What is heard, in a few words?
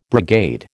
"brigade", American